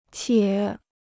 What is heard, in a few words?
"且", Chinese